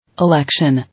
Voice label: "Election", English

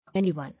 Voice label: "Anyone", English

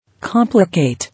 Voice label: "Complicate", English

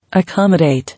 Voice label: "Accommodate", English

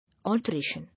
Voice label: "Alteration", English

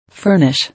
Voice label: "Furnish", English

